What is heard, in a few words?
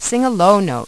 Sing Low, Voice, Prompts; Opcode Systems